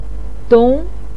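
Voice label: "dong" Mandarin Chinese, second tone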